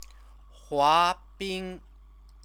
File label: "huabing", Chinese; 滑 冰; skate; verb